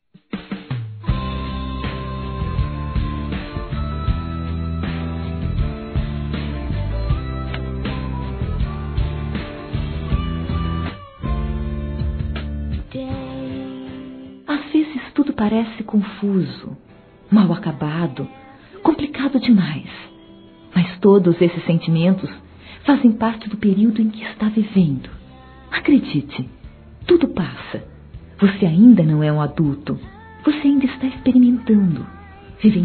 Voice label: Aniversário Adolescente Feminino; Aniversários; ANIV. DE ADOLESCENTE; Telemensagens

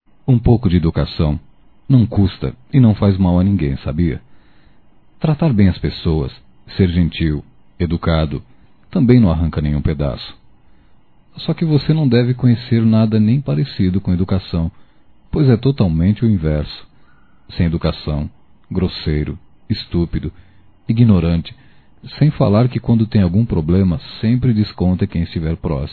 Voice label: Gente Mal Educada Masculino; Telemensagens 2017

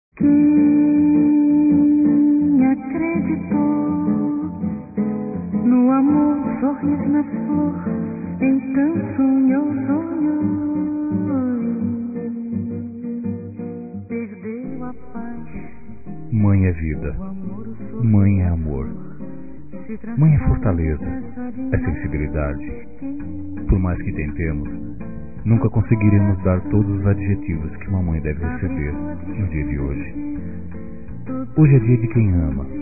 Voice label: Dia das Mães Geral Masculino; Telemensagens 2017

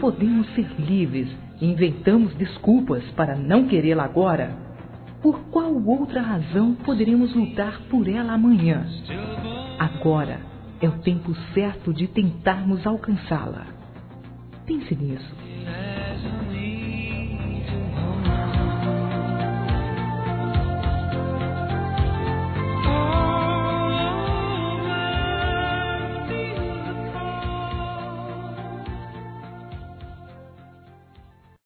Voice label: LIBERDADE, fem; Telemensagens; Telemensagens 2017

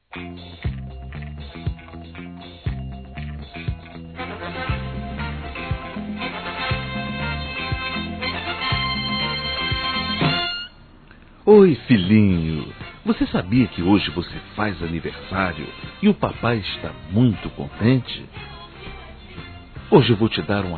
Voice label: Filho Criança Masculino; Aniversários; Aniv Familiar

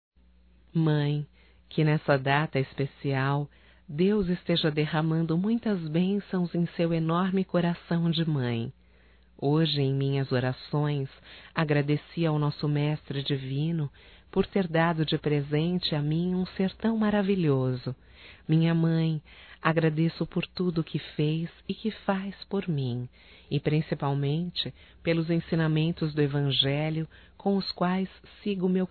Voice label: Aniversário Evangélico Feminino, Telemensagens; Aniversarios; Telemensagens 2017

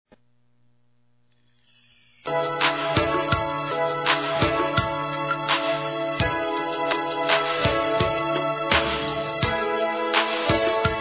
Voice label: Vestibulando Masculino, Telemensagens; Telemensagens 2017; Formaturas

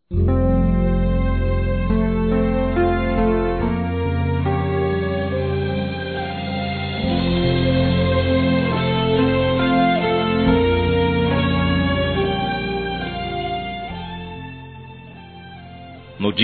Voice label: ANIV. EVANGELICO M

